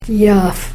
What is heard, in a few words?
"yaaf", karuk